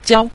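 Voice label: "jiao", Mandarin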